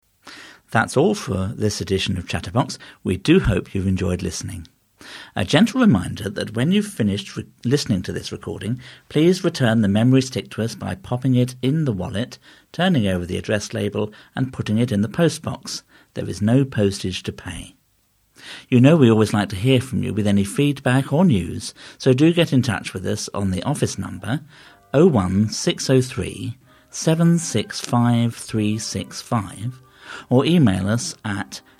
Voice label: Closing Announcement